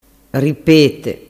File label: "ripete", dialettu